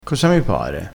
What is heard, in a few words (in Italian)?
"cosa mi pare", crespinese